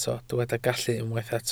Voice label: "gallu", Welsh